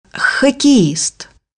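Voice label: "hockey player", Russian; bukvar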